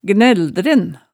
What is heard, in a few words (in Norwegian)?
"gnældren", hemsedalsmaalet